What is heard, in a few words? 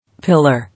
"Pillar", English